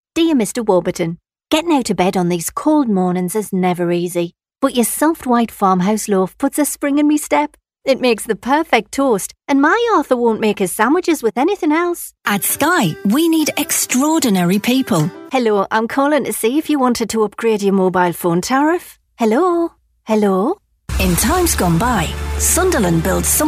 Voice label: north east accent mixed reel

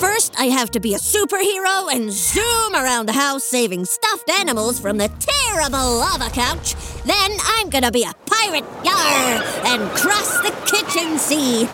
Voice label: general american excitable kid

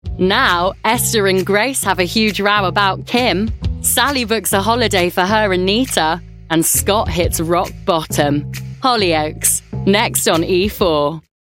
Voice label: continuity radio

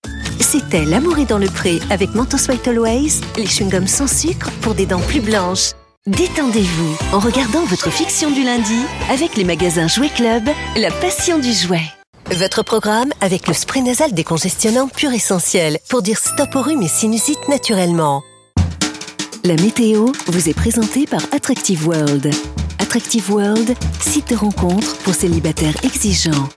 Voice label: French announcer short promos

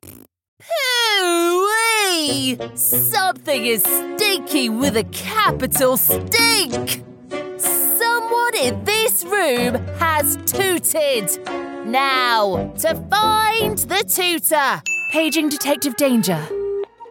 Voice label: character, Modern English RP Voiceover

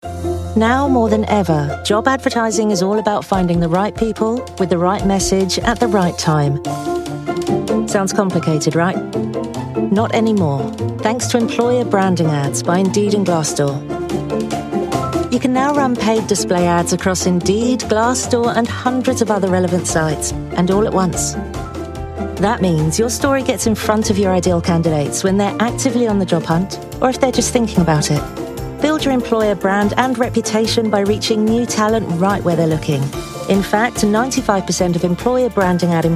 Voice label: commercial

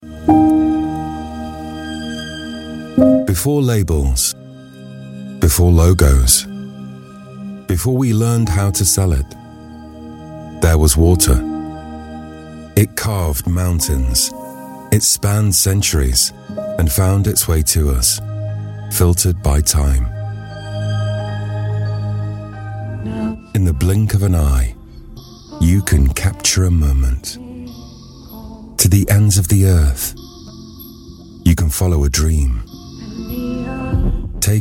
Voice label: inspiring

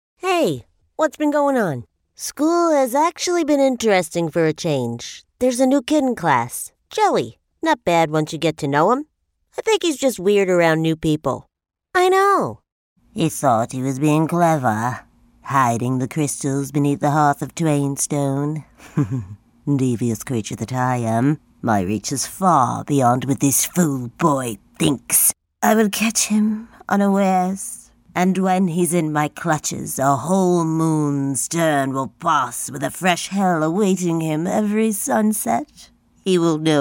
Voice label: american voice character

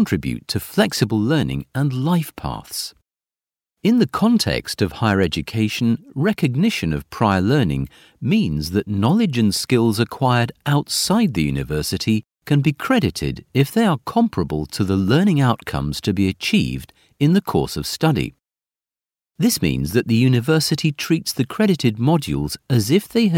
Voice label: educational e-learner

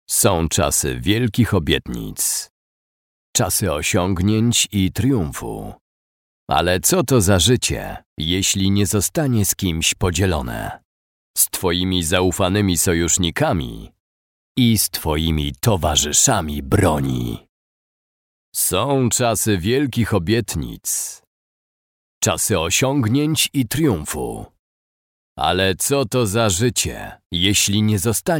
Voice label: polish voice video game trailer

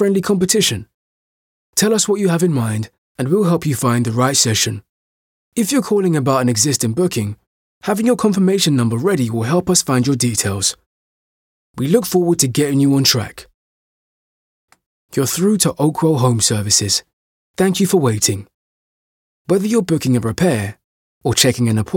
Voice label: telephone on-hold

